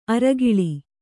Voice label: "ಅರೆಕಾಲ್", Kannada

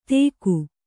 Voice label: "ತೇಕು", Kannada

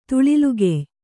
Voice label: "ತುಳಿಲ್ಗೆಯ್", kannada

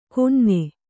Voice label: "ಕೊಞ್ಞೆ", Kannada

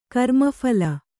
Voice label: "ಕರ್ಮಫಲ", Kannada

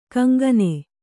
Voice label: "ಕೊಂಕಾನ್", Kannada

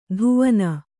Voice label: "ಧುವನ", kannada

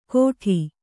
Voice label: "ಘೋಟಿ", Kannada

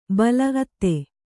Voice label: "ಅತ್ತೆ", Kannada

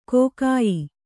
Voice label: "ಕಗ್ಗಾಯಿ", Kannada

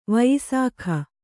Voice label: "ವಯಸ್ಕ", kannada

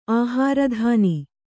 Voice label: "ಆಹಾರದಾನ", Kannada

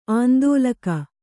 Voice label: "ಆಂದೋಲಕ", Kannada

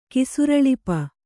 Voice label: "ಕಿಸುರಳಿಪ", Kannada

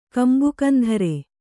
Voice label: "ಕಂಬುಕಂಧರೆ", Kannada

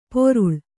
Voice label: "ಪೊರುಳ್", Kannada

